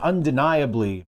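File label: "undeniably", English; pronunciations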